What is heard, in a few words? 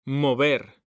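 "mover", Spanish, male; mekanta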